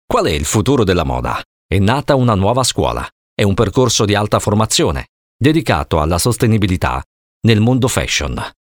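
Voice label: Italian, male, elearning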